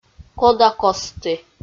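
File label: "кодакостті" Komi, female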